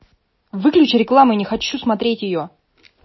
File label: angry; wav2vec2 xls r 300m emotion ru